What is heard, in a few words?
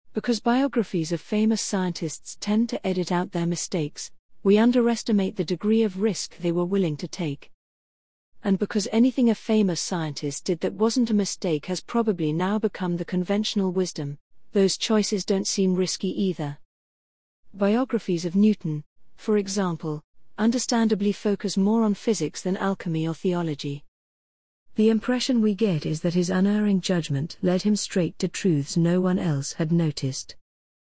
English (UK) news; Emilia